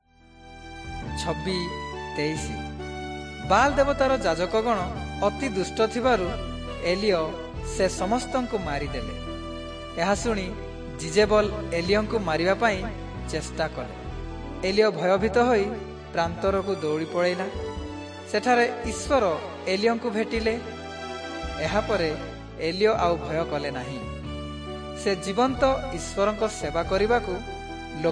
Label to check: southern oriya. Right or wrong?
Right